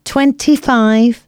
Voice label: announcements female